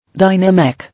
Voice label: "Dynamic" English